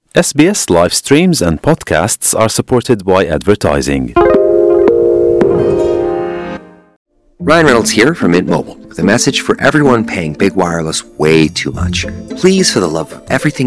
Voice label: gujarati newsflash; SBS Gujarati